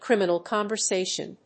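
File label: "criminal conversation", English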